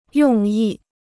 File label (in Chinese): "用意", Chinese